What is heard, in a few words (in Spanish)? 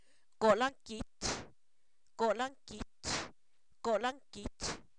clara de huevo, Zapoteco, san miguel albarradas; alimentos